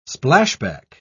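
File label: "splashback", British English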